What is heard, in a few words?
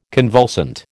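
"convulsant", American English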